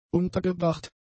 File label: "untergebracht", German; adjectives